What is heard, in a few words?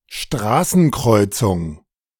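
"Straßenkreuzung", German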